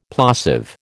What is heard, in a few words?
"plosive", American